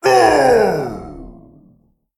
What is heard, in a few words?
Male Golem Death